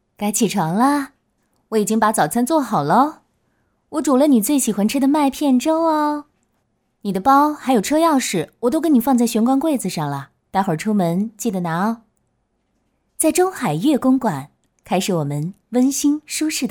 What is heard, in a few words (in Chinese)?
女 角色 温柔女